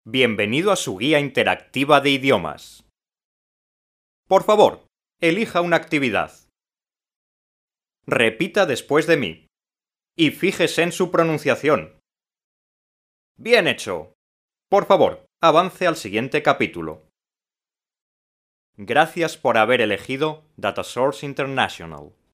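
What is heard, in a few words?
Spanish male corporate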